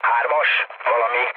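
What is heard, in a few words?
chat, speech hungarian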